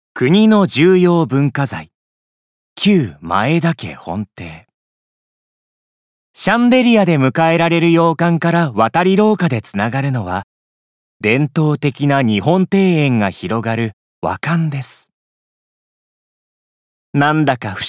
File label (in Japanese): 名所案内ナレ